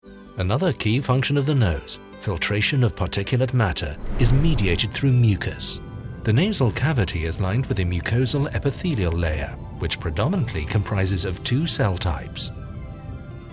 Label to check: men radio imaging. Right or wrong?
Wrong